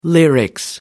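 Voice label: "lyrics", English, British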